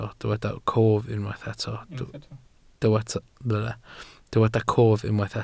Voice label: "cof", Welsh